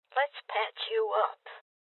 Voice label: Voices Heal Female Old; rebel cops 2019 gamerip

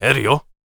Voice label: warrior conversation emotion question

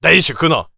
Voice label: warrior conversation emotion angry

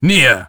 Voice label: Warrior emotion disagree

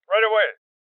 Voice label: Voices Confirmation Male Old

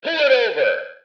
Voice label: Police Megaphone Man Says Pull It Over; Nightingale Music Productions

